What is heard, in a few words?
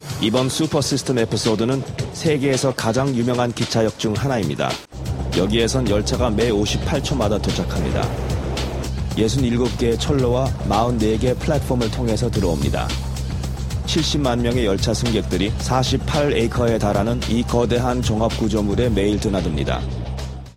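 Korean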